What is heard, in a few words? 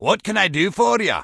Dwarf Male Standard Greeting; NPC